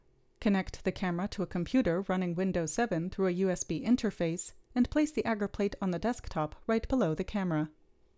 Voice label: Gong Voiceover